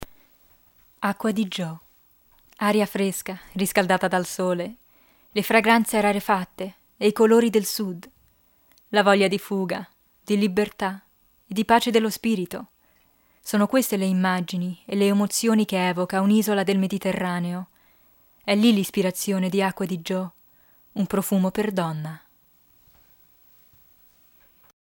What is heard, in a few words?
Italian